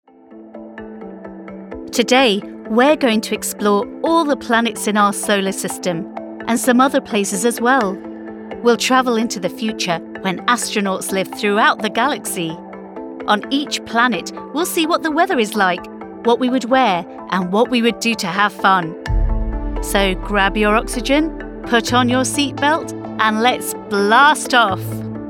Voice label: eLearning Older kids script planets